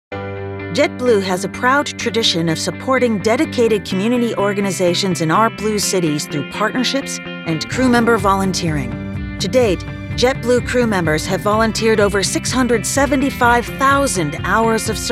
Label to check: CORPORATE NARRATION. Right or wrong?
Right